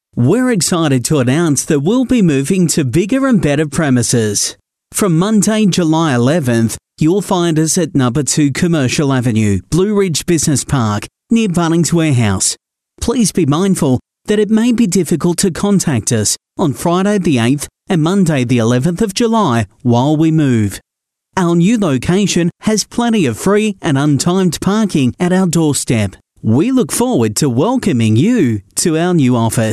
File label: onhold financial planners; whk